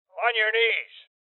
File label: Order To Surrender Male Old; rebel cops 2019; Voices